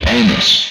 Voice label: "Bonus", Speech; retro arcade

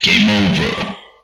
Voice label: "Game Over", Speech; retro arcade

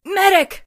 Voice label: "war medic", female voiceover; kenney

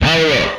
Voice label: "Power Up", Speech; retro arcade

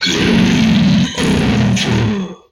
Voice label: "Game Over", Speech; retro arcade

